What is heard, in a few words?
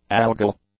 "algal", English; adjectives